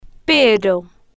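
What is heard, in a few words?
"pero", slow, spanish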